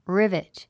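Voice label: "rivet", English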